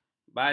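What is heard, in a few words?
"badan", Malay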